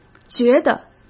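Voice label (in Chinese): "觉得", Mandarin Chinese; chinese flashcards; hsk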